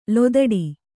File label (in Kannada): "ಲತಾಡ", Kannada